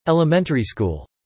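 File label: "elementary school" spoken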